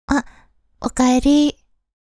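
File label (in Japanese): あ お帰りー, 宮崎弁; Windows向けシステムボイス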